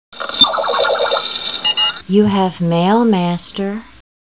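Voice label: computer mail, Female voice, Computer beeps then "You have mail, Master."; Female voice talking to "Master" or "Sir"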